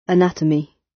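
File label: "anatomy", British English; Time, history; Thời gian, lịch sử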